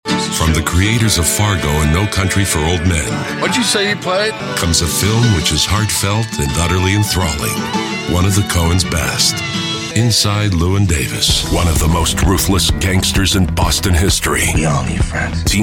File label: Trailers